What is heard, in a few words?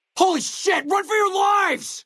male adult dialogue greeting; Fnv